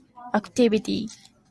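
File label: "activity", Japanese, female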